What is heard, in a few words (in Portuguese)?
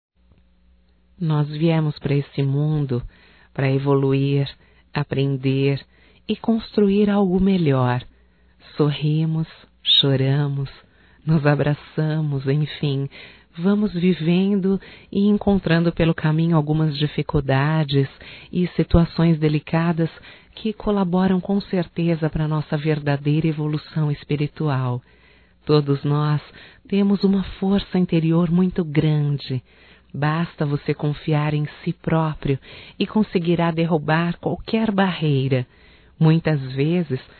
OTIMISMO (F), Só Voz; Telemensagens; HOMENAGENS